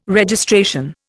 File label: "Registration", Indian